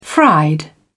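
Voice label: "fried", British English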